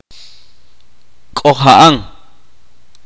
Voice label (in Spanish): estar enfermo, itza